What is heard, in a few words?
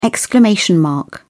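"exclamation mark", British English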